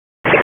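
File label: Public Safety Tactical, p25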